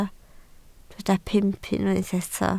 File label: "pump", Welsh